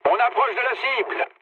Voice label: near waypoint mood low, speech fr; War Thunder